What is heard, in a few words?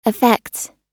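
"affects", US English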